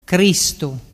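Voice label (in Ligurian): "cristu", Ligurian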